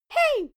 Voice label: child "hey"